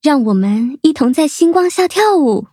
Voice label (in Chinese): levelup, zh-hant; cookie run